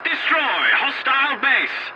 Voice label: voice message attack enemy base, english; War Thunder